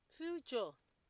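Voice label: "future", Nigerian Pidgin, female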